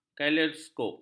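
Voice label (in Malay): "kaleidoskop", Malay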